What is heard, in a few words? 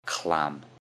"clam", English; MEAT, POUTRY AND SEAFOOD